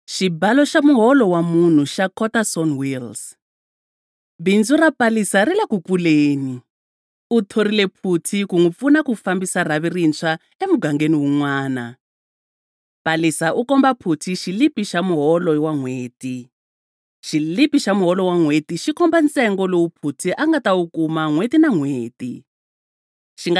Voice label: Tsonga